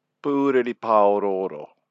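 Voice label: "purere paorooro", Māori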